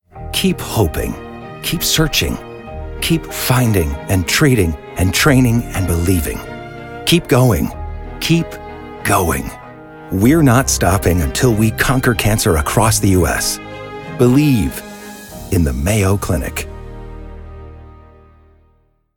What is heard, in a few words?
commercial; mayo clinic